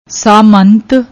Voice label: "saamant", Punjabi; ਸਾਮੰਤ

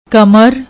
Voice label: "kamr", Punjabi; ਕਮਰ

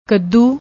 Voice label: "kadoo", Punjabi; ਕੱਦੂ

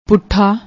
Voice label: "poothaa", Punjabi

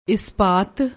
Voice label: "espat", Punjabi

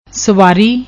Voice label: "swari", Punjabi

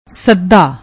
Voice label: "suda", Punjabi

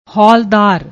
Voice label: "holdar", Punjabi; ਹੌਲਦਾਰ

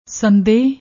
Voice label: "sandeh", Punjabi; ਸੰਦੇਹ

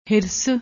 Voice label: "hirs", Punjabi; ਹਿਰਸ

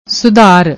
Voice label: "sudhar", Punjabi; ਸੁਧਾਰ

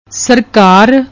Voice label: "srkar", Punjabi; ਸਰਕਾਰ

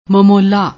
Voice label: "mamolla", Punjabi; ਮਮੋਲਾ

